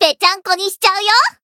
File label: Voice chant jp; may